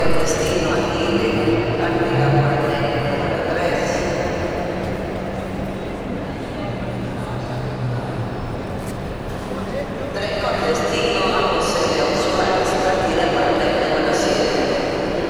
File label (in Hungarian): fopalyaudvar hangosbemondo